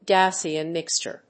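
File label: "Gaussian mixture", English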